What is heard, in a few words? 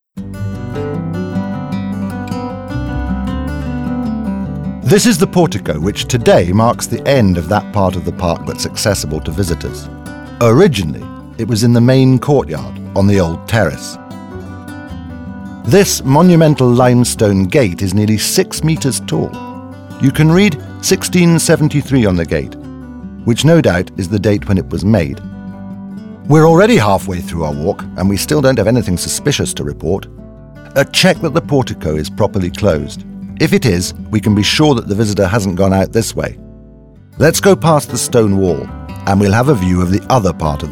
audioguide en enfant; jardin; modave